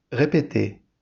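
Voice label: "répétez", French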